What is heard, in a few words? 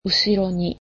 "after", japanese